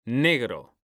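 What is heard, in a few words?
"negro", Spanish; mekanta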